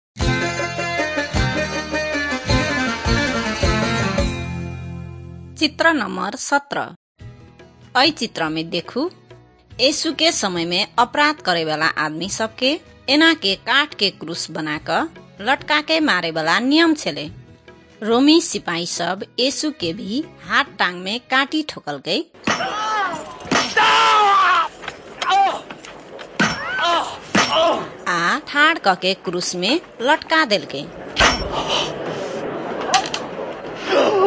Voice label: maithili thetiya